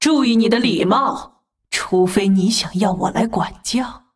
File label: attack, zh